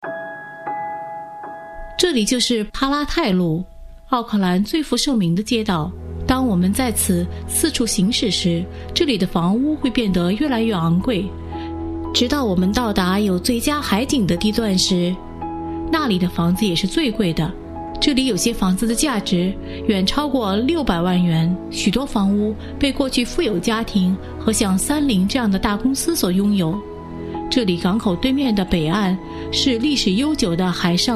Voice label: mandarin; grayline rangitoto